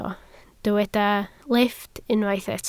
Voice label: "lifft", Welsh

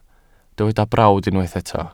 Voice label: "brawd", Welsh

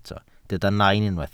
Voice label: "nain", Welsh

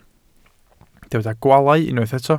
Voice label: "gwaalau", welsh

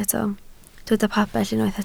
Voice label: "pabell", Welsh

